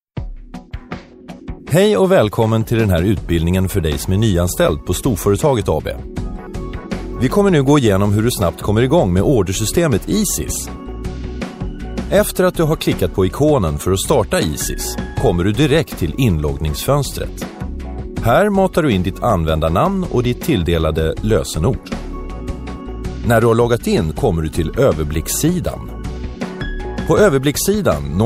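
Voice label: swedish male narration; voice demos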